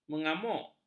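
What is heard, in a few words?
"mengamuk", Malay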